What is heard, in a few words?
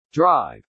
"drive", English